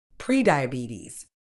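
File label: "pre-diabetes", British English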